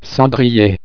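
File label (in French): "cendrier", French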